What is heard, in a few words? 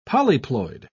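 "polyploid", British English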